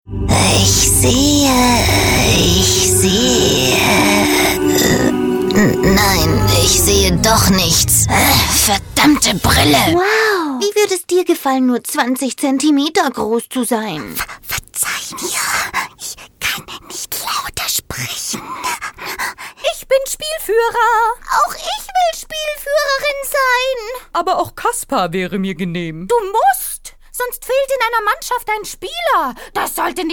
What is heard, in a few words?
Character Voice